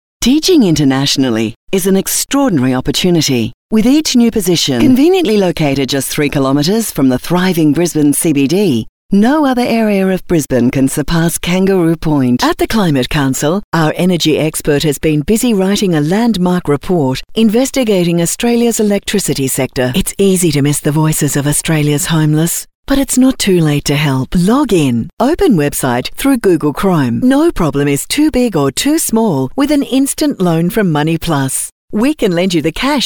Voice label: Corporate